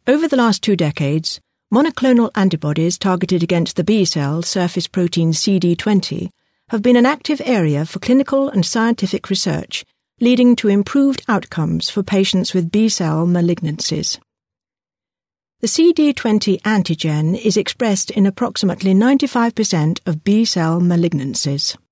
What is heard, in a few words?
English UK F Medical